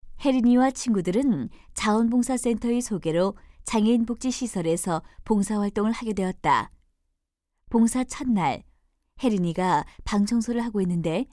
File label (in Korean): 내레이션; 도덕; 이웃에 대한 배려와 상호 협동